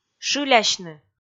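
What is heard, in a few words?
"шылясьны", Komi, female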